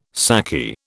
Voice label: "saki", British